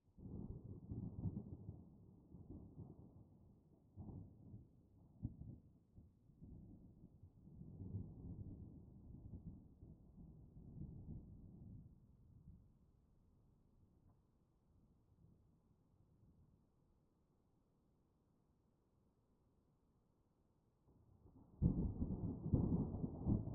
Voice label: THUNDERSTORM, ASMR; F4A; Your girlfriend comforts